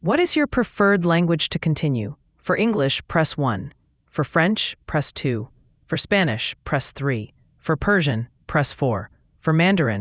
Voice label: english